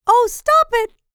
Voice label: LICKS, FEM SPOKEN; R&B & Dance; ILIO; Vocal Planet

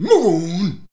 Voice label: "move on", shouts; Best Service; Hyper Dance

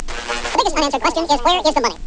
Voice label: SOS (NARRATION)

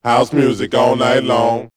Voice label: "House Music", house vocals; X-Static Goldmine

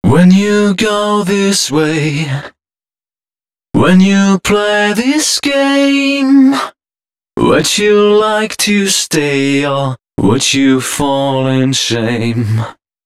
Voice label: male d#130, Dance Ultra Voice hooklines

